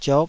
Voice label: "ziok5", taiwanese